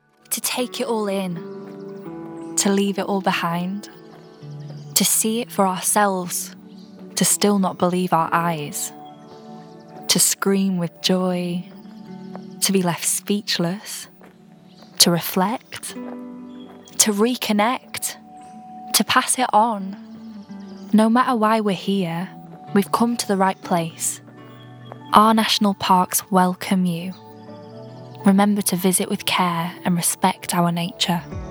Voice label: Commercial Reel

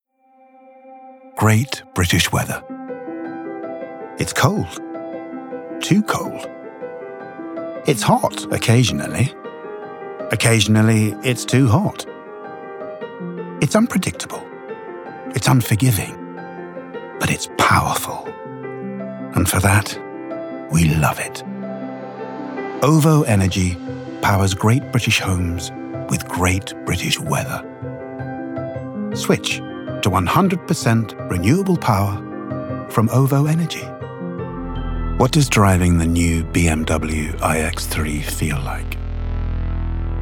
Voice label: Commercial Reel

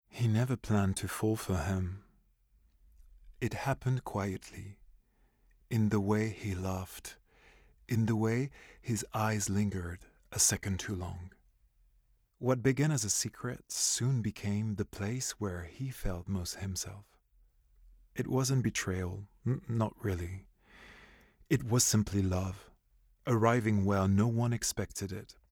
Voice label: Audiobook

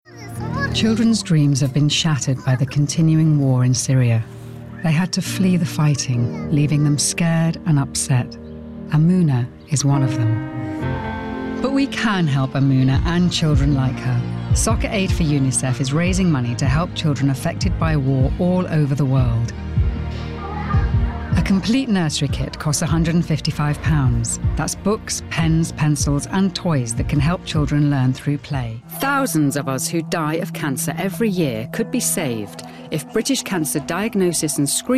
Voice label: documentary reel

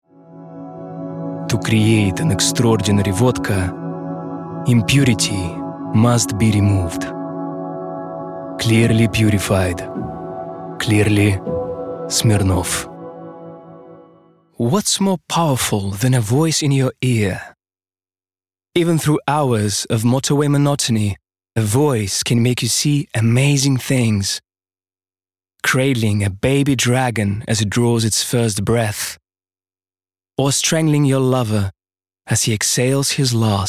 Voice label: Commercial Reel

